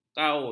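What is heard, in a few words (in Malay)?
"kaul", Malay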